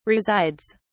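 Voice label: "resides", British English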